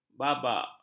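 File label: "babak", Malay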